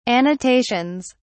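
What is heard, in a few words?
"annotations", British English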